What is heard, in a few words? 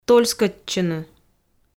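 "тольскӧдчыны", Komi, female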